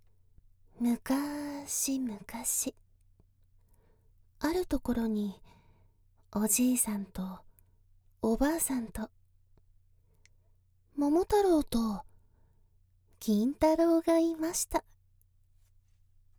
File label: Focusrite solo voice